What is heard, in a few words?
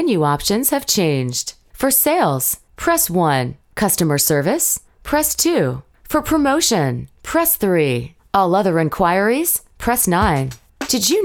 MESSAGING ON HOLD IVR